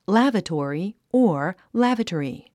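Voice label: "lavatory", English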